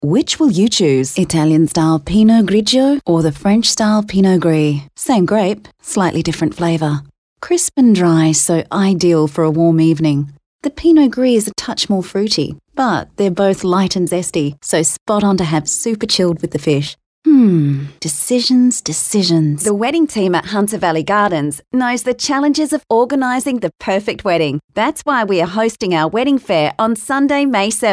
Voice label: Soft Sell